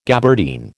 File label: "gabardine", American